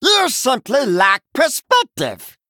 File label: Male Murloc Attack